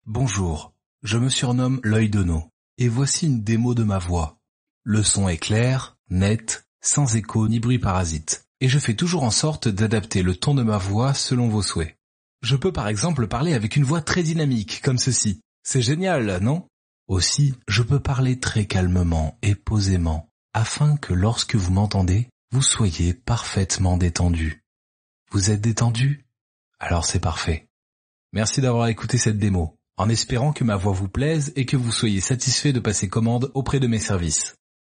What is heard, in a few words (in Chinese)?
法语男, 外语, 小语种, 磁性